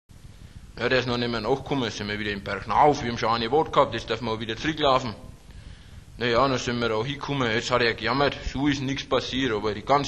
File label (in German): Ostfraenkisch; Sprachbsp; Oberdeutsch